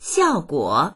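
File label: "xiao4guo3", Mandarin Chinese; 效果; 効果; dictionary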